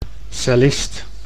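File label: "cellist", Dutch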